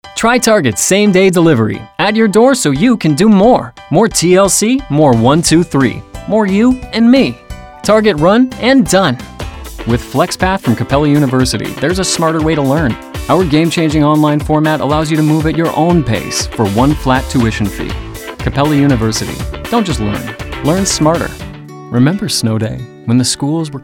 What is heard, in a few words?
Male Commercial